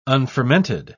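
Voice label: "unfermented", English, uk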